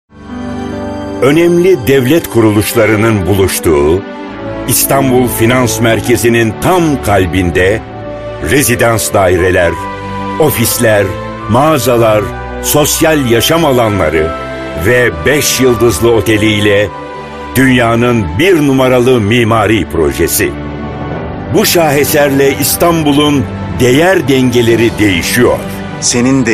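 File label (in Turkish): reklam, Turkish; sarphan finanspark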